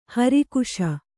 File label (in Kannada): "ಹಿರಾಕಸ", Kannada